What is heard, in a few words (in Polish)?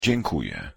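"dziękuję", Polish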